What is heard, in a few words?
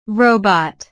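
"robot", English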